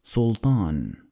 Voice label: "Sultan", Arabic